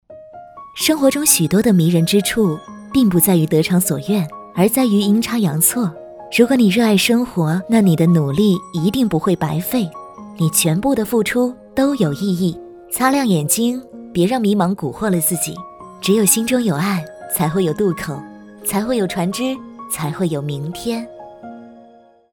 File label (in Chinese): 温暖配音